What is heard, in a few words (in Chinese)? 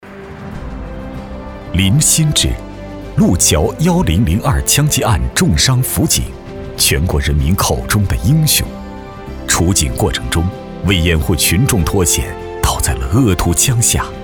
男; 人物